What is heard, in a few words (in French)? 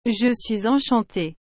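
"Je suis enchanté", French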